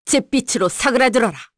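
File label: Vox Skill, Korean; Seria; kings raid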